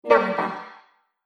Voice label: Robot Voice Number; Robot Sound Effects; Sci-Fi Sound Effect; Andrew Fleming